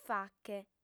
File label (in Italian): "fache", lingua sarda